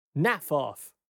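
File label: "Naff off.", British English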